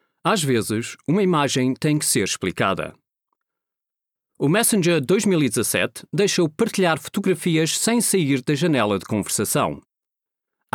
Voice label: Portuguese M Corporate